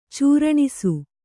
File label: "ಚೂರಣಿಸು", Kannada